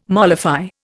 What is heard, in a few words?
"mollify", Indian